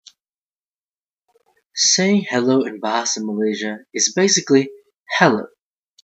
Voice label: Hello Bahasa